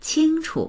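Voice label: "qing1chu", Mandarin Chinese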